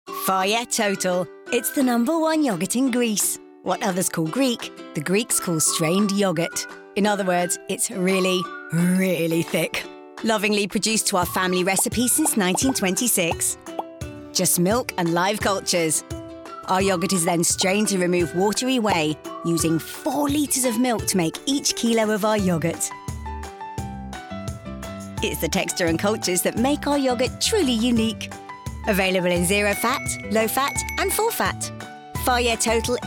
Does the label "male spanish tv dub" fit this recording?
No